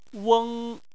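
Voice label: "weng", Chinese, first tone; Chinese Surnames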